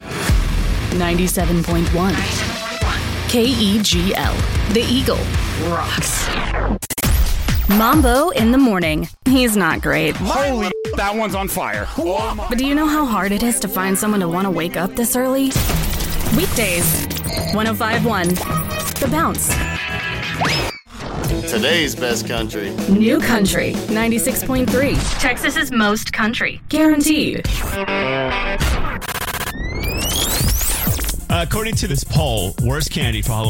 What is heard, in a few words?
Radio Imaging